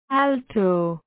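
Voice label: "alto", IND, female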